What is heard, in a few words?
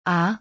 The letter A in German